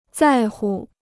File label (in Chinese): "在乎", Chinese